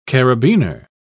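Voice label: "carabiner", English, British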